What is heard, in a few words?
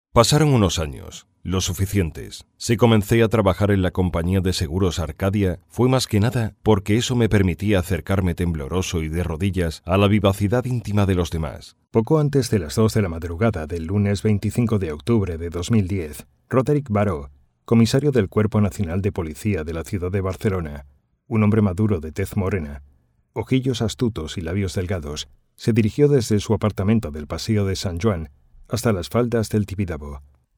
spanish audiobooks